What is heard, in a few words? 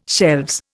"shelves", Indian